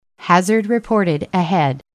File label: Approach Hazard, eng; waze